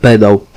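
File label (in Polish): "pedal", Polish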